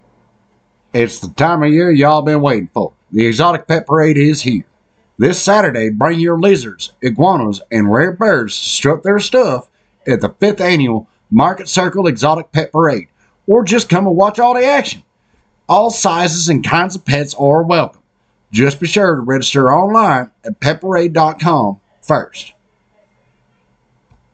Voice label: Pet Show (mock ad)